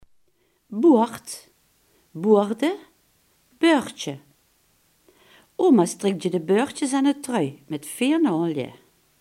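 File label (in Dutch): "boord" Limburgish dialect word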